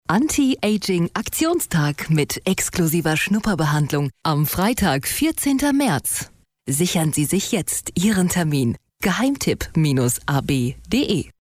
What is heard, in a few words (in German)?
funk spot sprachaufnahme